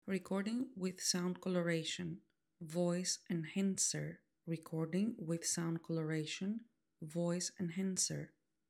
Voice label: Sound Coloration Voice Enhancer, wireless lavalier microphone; maono ai wave t5